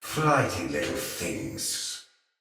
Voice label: Sight Lost Shout Thawtide Hunter; Barks Battle